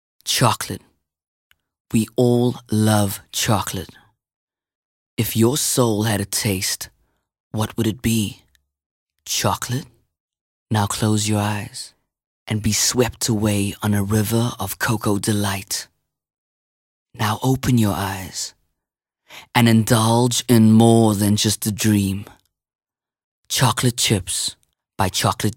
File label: english